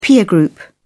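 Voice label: "peer group", English, British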